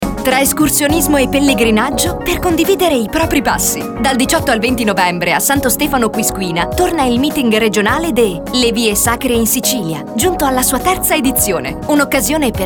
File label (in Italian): spot radio